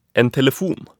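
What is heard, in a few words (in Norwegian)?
"en telefon", Norwegian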